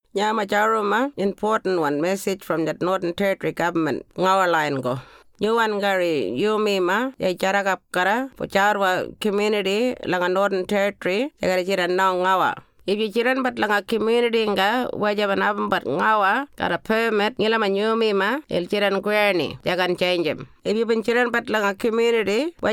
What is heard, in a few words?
changes to alcohol restrictions ngarinyman